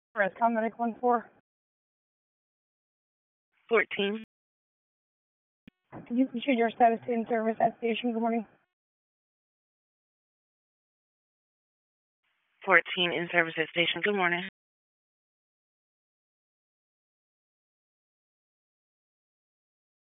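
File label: EMS DISP, radio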